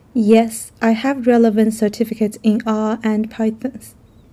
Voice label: female filler; interviewee